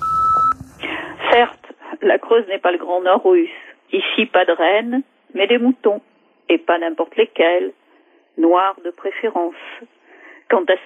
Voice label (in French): repondeur; Mechoui militant en Creuse